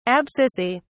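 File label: "abscissae", English, British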